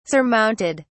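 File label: "surmounted", British English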